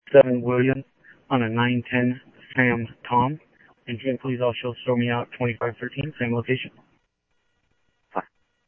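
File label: Sheriff West County, scanner audio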